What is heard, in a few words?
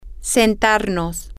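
to seat ourselves, Spanish, female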